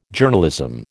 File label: "Journalism", American